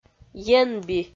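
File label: "енби", Komi, female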